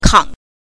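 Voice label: "kang", neutral tone, Mandarin Chinese pinyin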